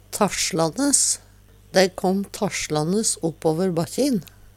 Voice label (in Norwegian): tasslanes, Numedalsmål; Adverb; Dei kåm tasslanes oppåver bakkjin.